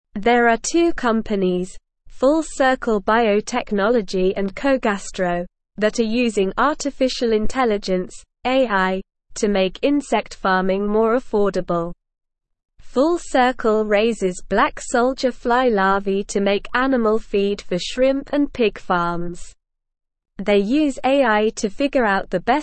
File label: English SLOW Reading; Insect Farms Turn to AI to Lower Costs